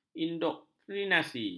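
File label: "indoktrinasi", Malay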